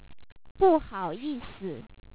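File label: "不好意思", Chinese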